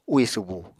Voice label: "úì subu", Apatani

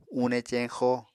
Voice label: "une ceñxo", Apatani

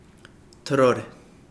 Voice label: tongue, Kapingamarangi

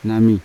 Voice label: "nami", Yawa; eyes; unat

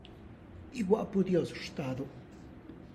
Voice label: say hi to your dad for me, Tlacochahuaya Zapotec; igua'pu Dios xhtadu!

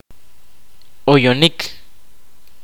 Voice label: kaqchikel; Call the spirit

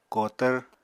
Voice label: "kotvr", Apatani; Material Culture

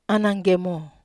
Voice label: "ananggemo", wamesa

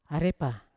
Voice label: "arepa" who, Yawa

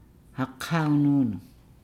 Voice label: "breastfeed", Nukuoro

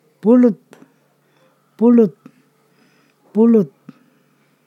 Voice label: "sap", Ponosakan

